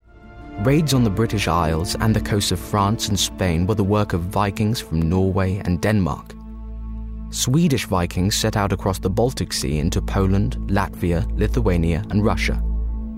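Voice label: UK English, Documentary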